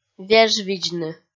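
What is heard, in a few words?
"вежвидзны", Komi, female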